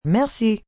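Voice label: Thank You French F, female, French; Etiquette; VoiceBox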